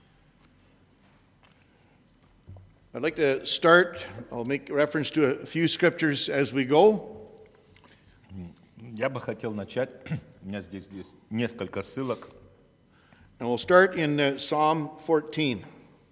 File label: Gospel Ukrainian Translation